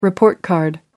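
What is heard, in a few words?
"report card", British English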